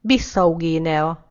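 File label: "guinea bissau", Hungarian; gcompris; geography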